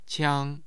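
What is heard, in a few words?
"qiang1", Mandarin Chinese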